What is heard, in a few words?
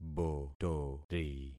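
"botori", concatenated syllables; Affixing experiment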